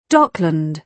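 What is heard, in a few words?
"dockland", British English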